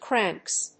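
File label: "Cranks", English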